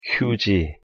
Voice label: "휴지" Korean; pronunciation

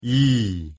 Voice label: "이" Korean; pronunciation

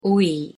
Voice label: "오이" Korean; pronunciation

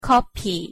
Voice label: "커피" Korean; pronunciation

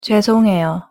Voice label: "죄송해요" Korean; pronunciation

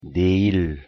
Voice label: "내일" Korean; pronunciation

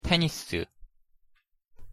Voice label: "테니스" Korean; pronunciation

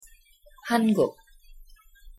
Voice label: "한국" Korean; pronunciation

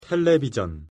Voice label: "텔레비전" Korean; pronunciation

